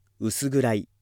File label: male